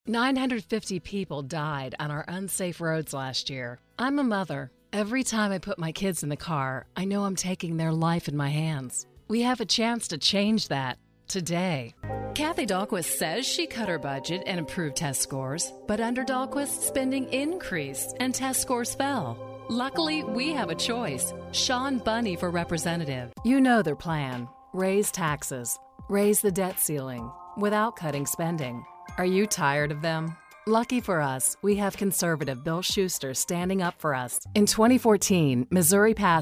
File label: Political w Music